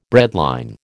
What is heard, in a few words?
"breadline", American